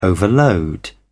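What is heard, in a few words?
"overload", British English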